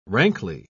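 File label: "rankly", British English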